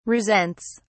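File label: "resents", British English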